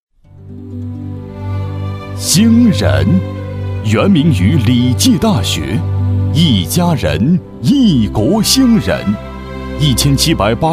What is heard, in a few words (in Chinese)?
男 专题 解说词; 招商